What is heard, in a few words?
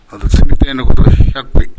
"hyakkoi", minamiyamagata